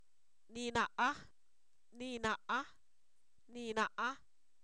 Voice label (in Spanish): Zapoteco, san miguel albarradas; pesado; adjetivos